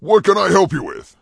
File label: Orc Male Standard NPC Vendor